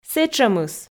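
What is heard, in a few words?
"сэтшӧмыс", Komi, female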